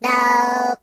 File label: "Nooo", Robot voice; hedgewars